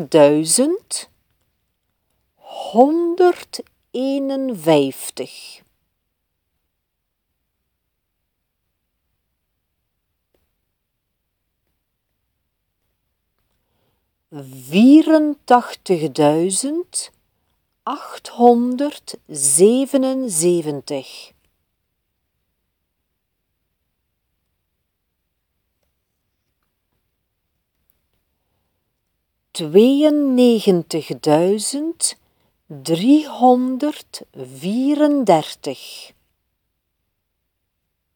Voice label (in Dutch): Cijferdictee